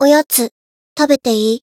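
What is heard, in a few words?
Voice win, Japanese; nagi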